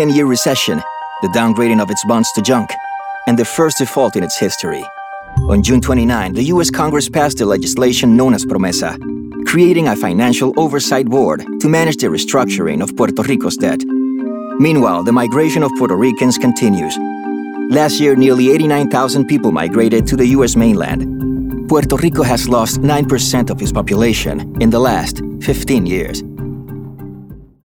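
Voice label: Narration English with Spanish accent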